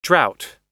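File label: "drought", American English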